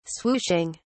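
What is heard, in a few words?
"swooshing", British English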